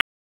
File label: Police North District Tac 1, p25; Raleigh; wake